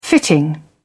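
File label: "fitting", British English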